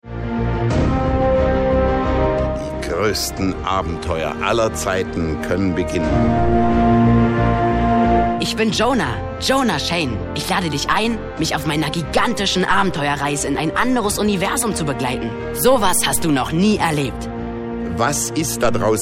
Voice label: Radiospot